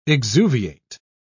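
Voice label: "exuviate", British English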